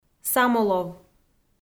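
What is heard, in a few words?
"самолов", Komi, female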